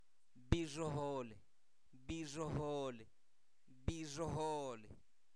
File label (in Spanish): chipiloso, Zapoteco, santo domingo albarradas; adjetivos; INALI